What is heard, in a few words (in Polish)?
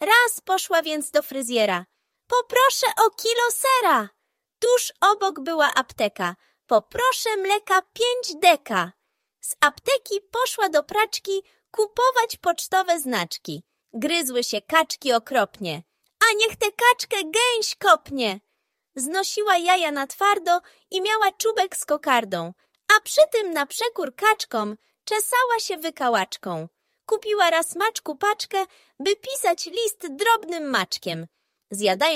Kaczka Dziwaczka audiobook; Jan Brzechwa